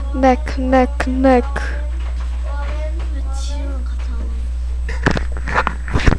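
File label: "neck", spoken word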